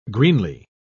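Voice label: "greenly", British English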